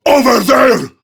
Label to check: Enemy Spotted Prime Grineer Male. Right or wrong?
Right